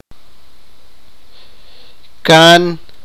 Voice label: sipakapense; father's elder brother

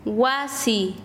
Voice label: Chanka, quechua; casa

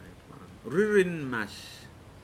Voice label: "Eyebrow", Pingelapese

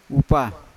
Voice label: "upa" rice, Yawa

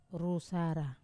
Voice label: "rusara" repeatedly, Wamesa

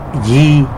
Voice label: "ngui", tlacolula; sour

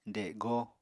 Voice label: "dego", Apatani

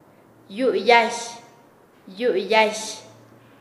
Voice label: "ojo de la aguja", Nasa Yuwe; paez

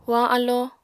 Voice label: "hoañ alló", apatani

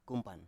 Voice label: "kumpan", Biak

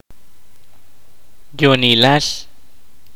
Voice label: "alone", kaqchikel

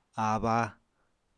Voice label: "abba", apatani

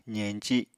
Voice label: "zeñci", Apatani

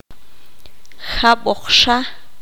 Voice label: "otro poco", Q'anjob'al

